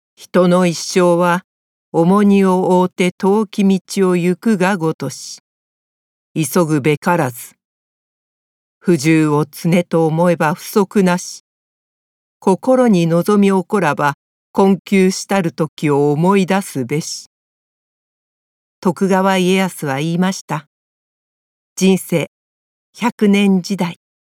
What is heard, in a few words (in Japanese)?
物語風CM